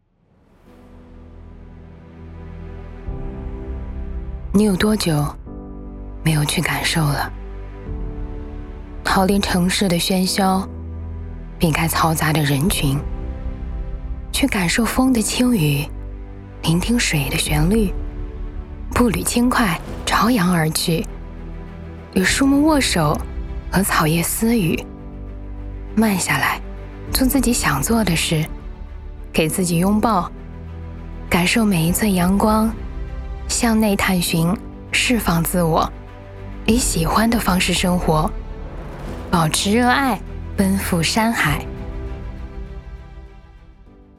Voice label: 走心稳重乐观

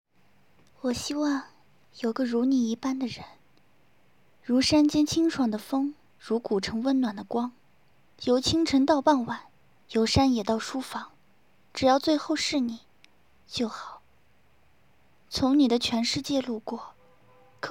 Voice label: 配音; 配情感语录

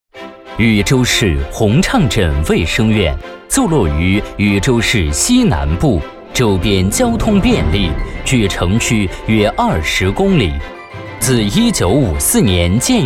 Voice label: 大气 专题片 卫生院 磁性 沉稳; 鸿畅镇

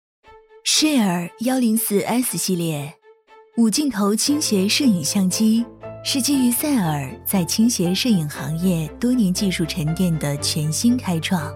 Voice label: 宣传片品牌宣传号女播

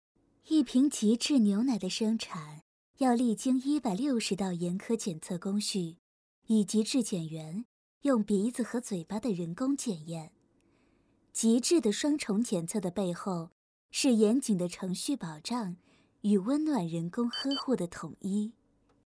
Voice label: 温情亲和; 牛奶

